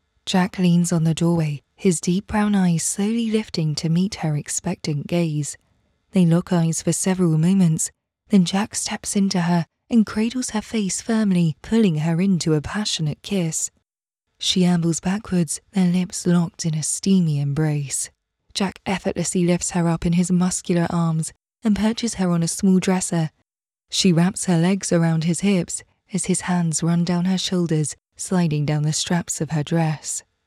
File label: Drama AD